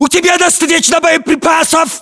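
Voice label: status check, battlechatter, Russian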